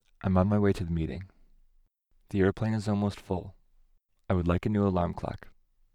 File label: long sad